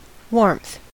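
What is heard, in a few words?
"warmth", American English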